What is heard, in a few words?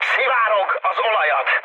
damaged oil, speech hun; War Thunder